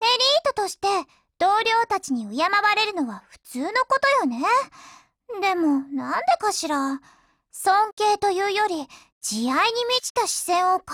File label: DIALOGUE WEDDING JP